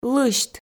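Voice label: "лысьт", Komi, female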